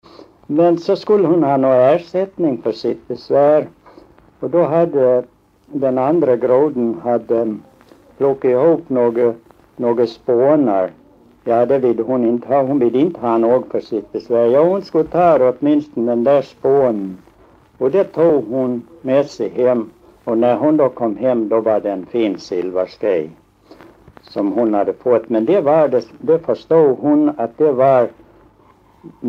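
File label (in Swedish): Hellvi Gotland, dialekter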